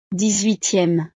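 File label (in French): "dix huitieme", French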